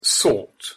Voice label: pronunciation en sought